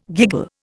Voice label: "giggle", Indian